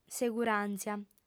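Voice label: "seguràntzia", Sardinian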